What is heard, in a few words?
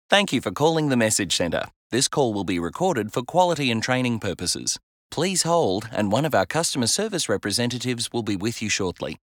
thank you for calling natural male